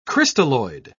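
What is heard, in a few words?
"crystalloid", British English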